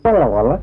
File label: "walwalak", wambawamba